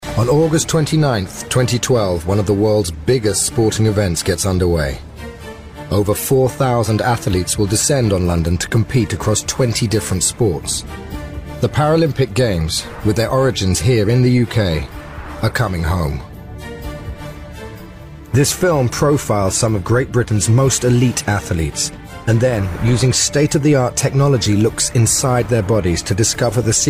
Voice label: documentary; inside incredible athletes